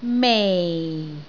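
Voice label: "mei4", Cantonese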